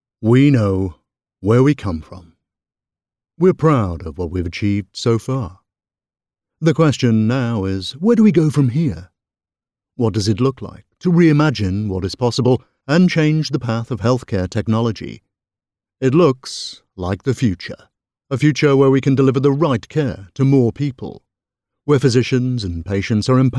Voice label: voiceover corporate company overview

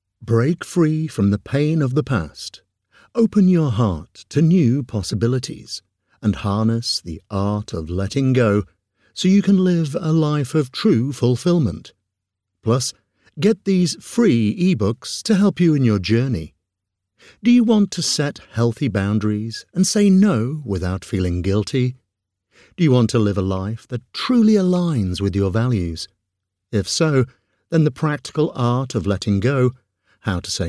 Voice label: audiobooks; Practical Art Of letting Go

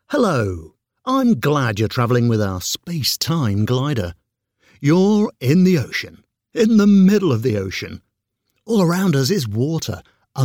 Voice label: voiceover audioguide theme park

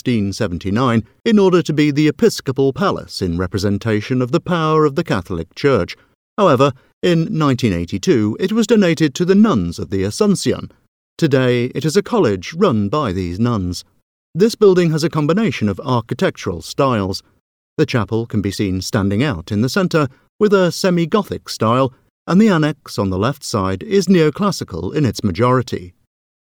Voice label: voiceover narrative audioguide city tour; leon

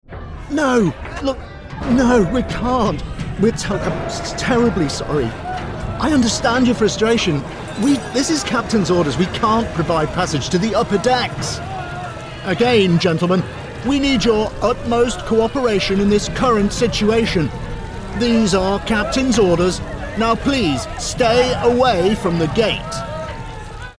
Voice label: narrative character; voiceover; character voices; titanic scenes

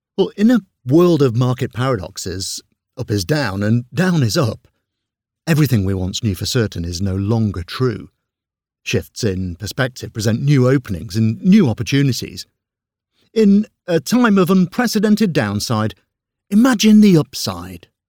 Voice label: voiceover character interviewee; market paradox

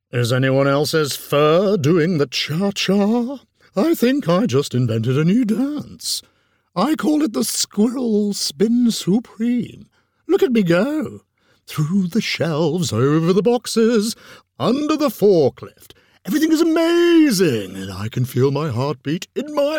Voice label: OTT Cartoon, character voices

